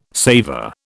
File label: "saver", British